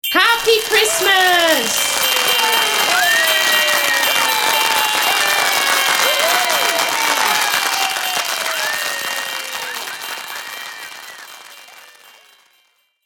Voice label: Happy Christmas Spoken, Female voice saying ‘Happy Christmas’ with jingle bells, group chee; group cheering and clapping; Holidays